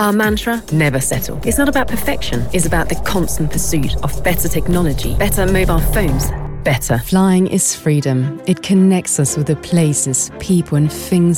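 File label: English Commercial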